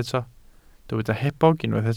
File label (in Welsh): "hebog", Welsh